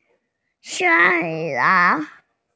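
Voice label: "shan1yang2", Mandarin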